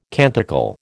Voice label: "canticle", American

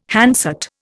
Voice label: "handset", Indian

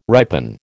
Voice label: "ripen", American

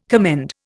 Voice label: "commend", Indian

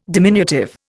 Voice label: "diminutive", Indian

